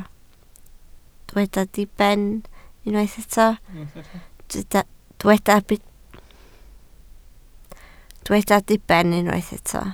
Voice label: "diben", Welsh

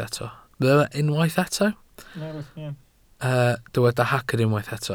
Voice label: "hagr", Welsh

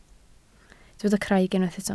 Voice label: "craig", Welsh

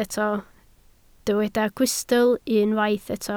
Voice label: "gwystl", Welsh

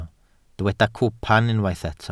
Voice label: "cwpan", Welsh

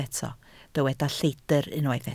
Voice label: "lleidr", Welsh

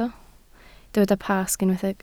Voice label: "Pasg", Welsh

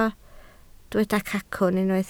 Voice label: "cacwn", Welsh

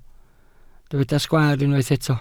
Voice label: "sgwaar", Welsh

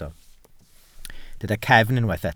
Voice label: "cefn", Welsh

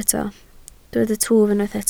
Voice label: "twf", Welsh

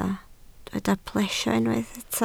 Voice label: "plesio", Welsh

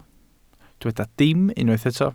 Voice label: "dim", Welsh

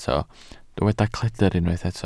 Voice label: "cledr", Welsh

